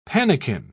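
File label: "pannikin", British English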